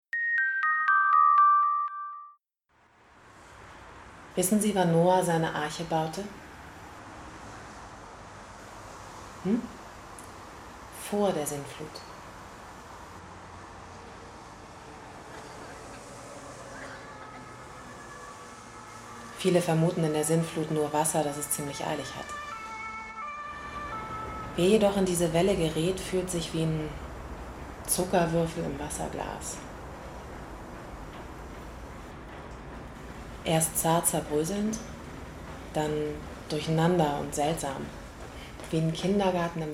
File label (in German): Sprecherdemo Voiceover Kinofilm; Wenn der Mnd platzt